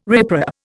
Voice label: "riprap", Indian